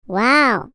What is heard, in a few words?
wow high